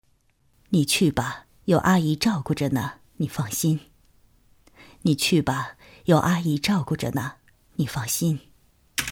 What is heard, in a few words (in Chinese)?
喵喵 角色中年女